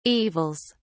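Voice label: "evils", British English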